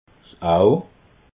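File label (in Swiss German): "auw", Alsatian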